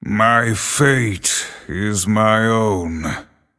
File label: Male Night Elf Bark; Illidan Stormrage